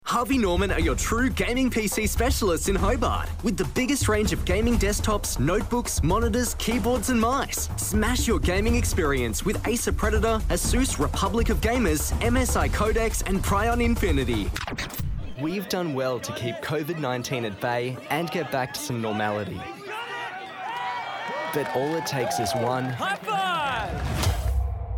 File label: Commercial VO